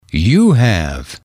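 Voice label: you have formal, male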